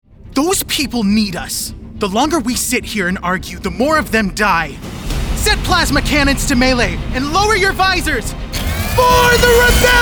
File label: Character